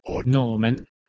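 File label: "h3neh3men", Proto-Indo-European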